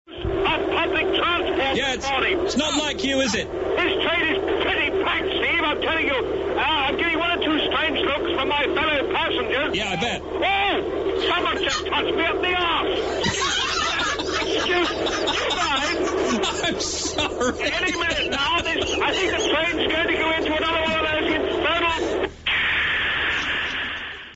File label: voiceover man on public transport; steve wright